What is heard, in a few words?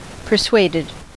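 "persuaded", US English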